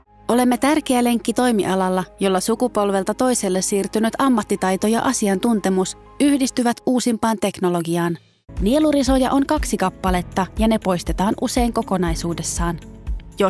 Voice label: finnish corporate videos

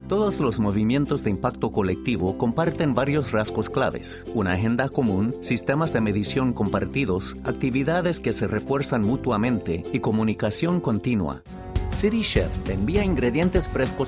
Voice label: spanish latin american explainer videos

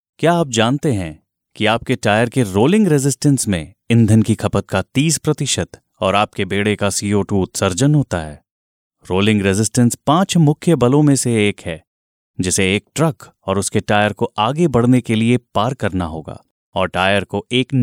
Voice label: hindi corporate videos

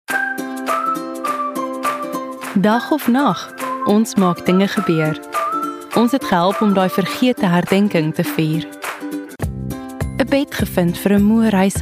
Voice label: commercial

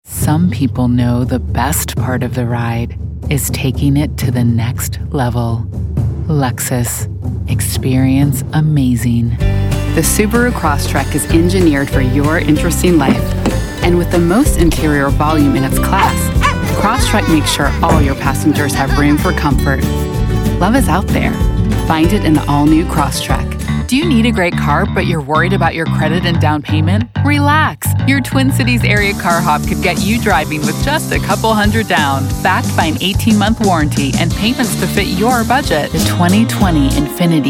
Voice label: english american automotive

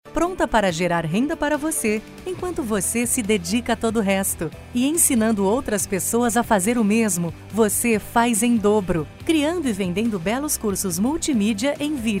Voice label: Portuguese Brazilian e-learning

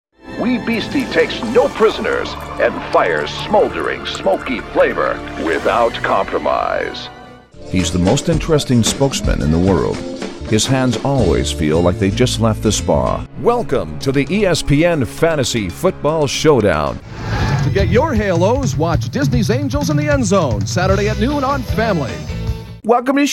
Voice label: English Canadian impersonations; voice actors

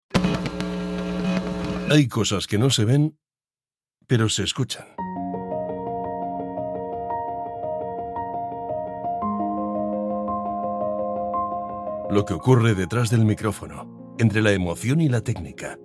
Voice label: Catalan podcasts

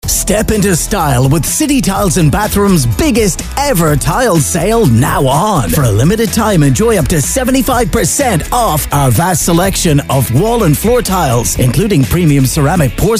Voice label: english irish real estate, irish voice actors

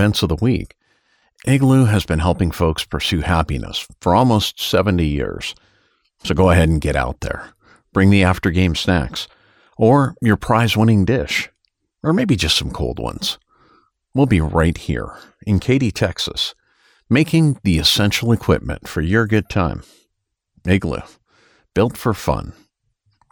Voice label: english american others

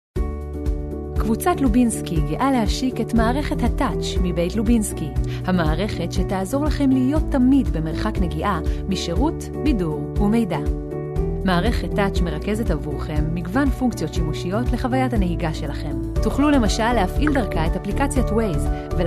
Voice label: hebrew automotive

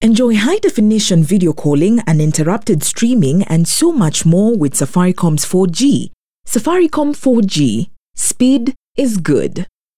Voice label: english african commercial

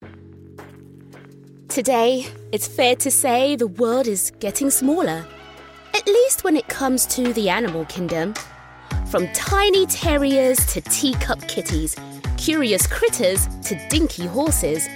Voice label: english british documentaries

